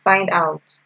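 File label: "find out", Nigerian Pidgin, female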